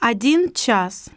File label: russian 1 hour; samsung YP-U5; Audio User Interface